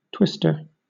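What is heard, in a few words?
"twister", British English; pronunciations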